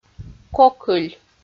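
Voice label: "кокыль", Komi, female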